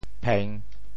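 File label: "pheng3", Teochew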